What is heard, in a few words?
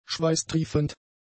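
"schweißtriefend", German; adjectives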